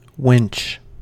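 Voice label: "winch", American English; pronunciations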